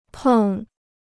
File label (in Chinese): "碰", Chinese